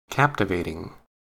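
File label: "captivating" English, American accent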